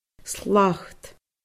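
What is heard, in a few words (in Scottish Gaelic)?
"slat", Scottish Gaelic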